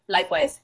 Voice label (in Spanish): Voice Acting es